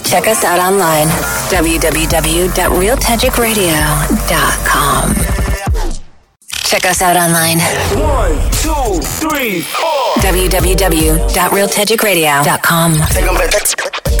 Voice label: RADIO MONTAGE